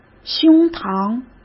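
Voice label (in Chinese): "胸膛", Mandarin; chinese flashcards; hsk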